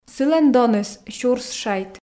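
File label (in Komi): сылӧн доныс сюрс шайт, Komi, female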